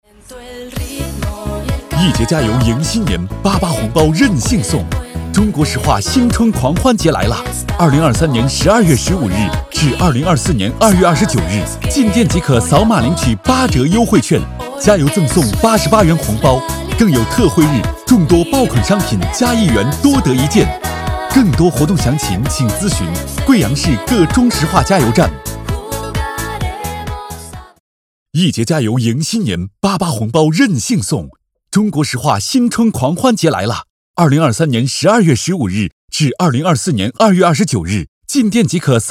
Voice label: 男, 店铺促销, 激情, 快节奏

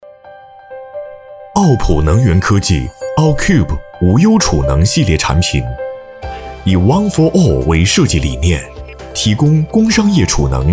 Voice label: 男 专题宣传 科技感 产品介绍

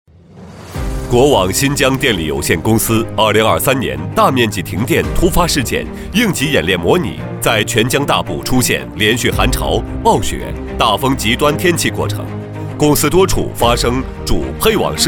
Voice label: 男 专题 新疆电力公司

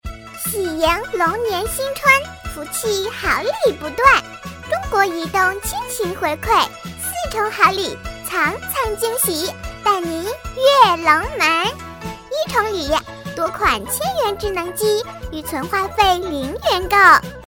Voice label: 广播, 女30童声; 礼跃龙门广播

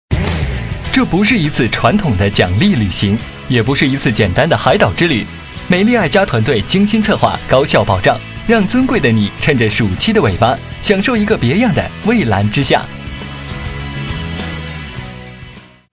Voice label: 男 节目宣传

